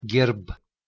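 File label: "gerbh", Proto-Indo-European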